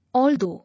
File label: "Although", English